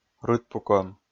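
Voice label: "рытпукӧм", Komi, male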